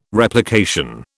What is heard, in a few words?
"Replication", British